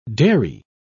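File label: "dhurrie", English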